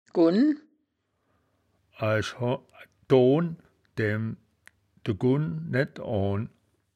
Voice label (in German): "gunn", wittlich